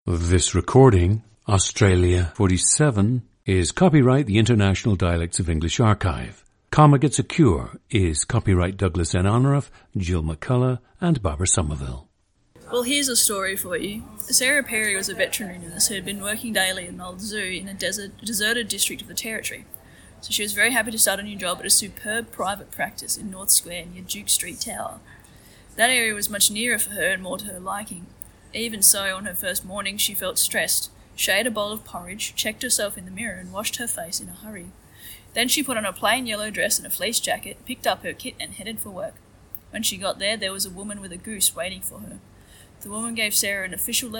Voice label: australia; 31 years old and from Kununurra; International Dialects of English Archive